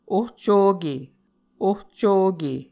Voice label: "ohcoke", Muscogee